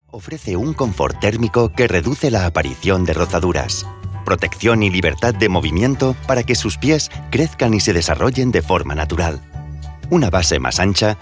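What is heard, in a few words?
European Spanish, corporate; GoLocalise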